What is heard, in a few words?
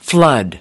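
"flood", English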